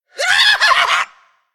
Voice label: Male Undead Death